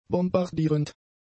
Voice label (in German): "bombardierend", German; adjectives